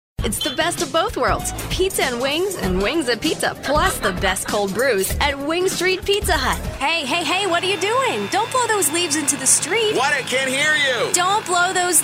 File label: english us f commercial, voice demos